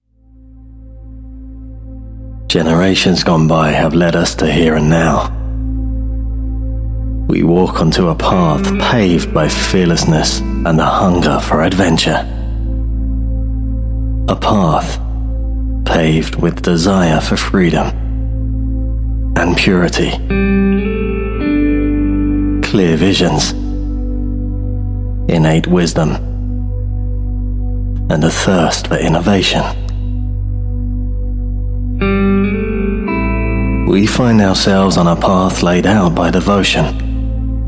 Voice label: UK English TV Trailer